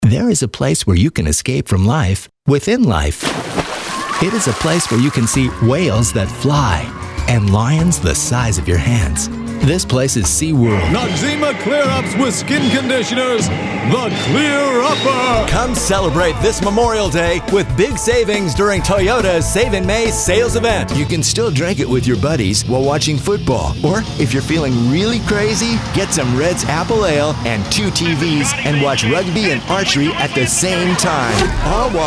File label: Commercial Male